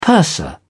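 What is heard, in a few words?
"purser", British English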